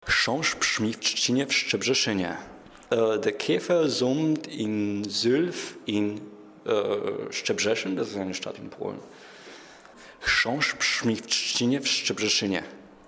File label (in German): polnisch; Zungenbrecher